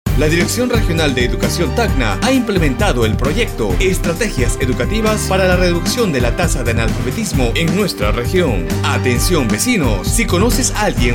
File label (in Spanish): spot publicitario; neumann